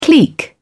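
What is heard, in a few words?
"clique", British English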